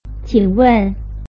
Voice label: "qingwen", Chinese